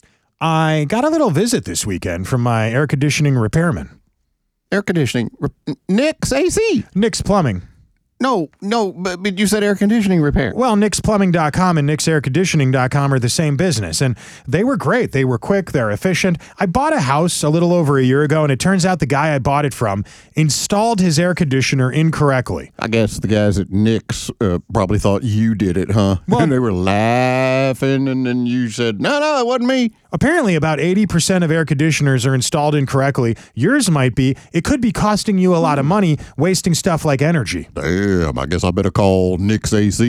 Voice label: RADIO AD; nicks plumbing radio ads